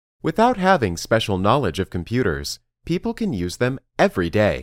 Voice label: Dictation